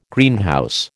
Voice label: "Greenhouse", American